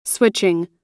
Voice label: "switching", US